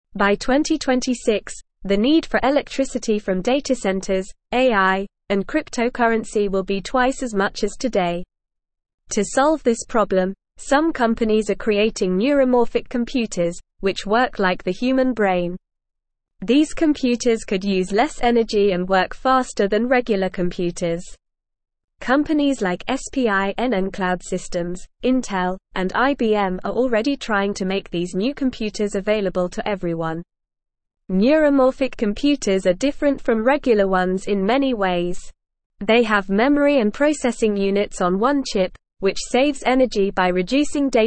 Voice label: English NORMAL Reading; Brain like Computers Faster Energy saving Future